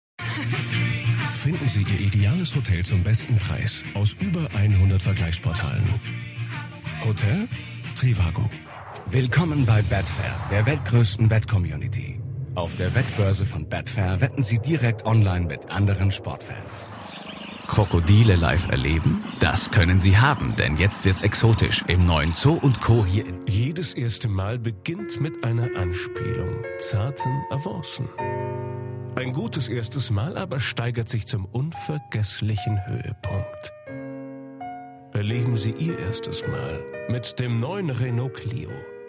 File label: radio national commercial mix